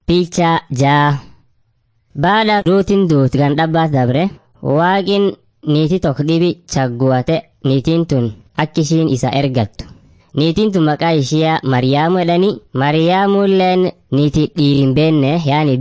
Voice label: afan munyoyaya; servants of god

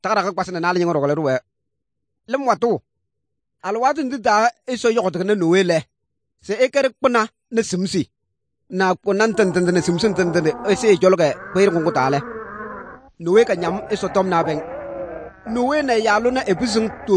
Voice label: good news, kabiye bible

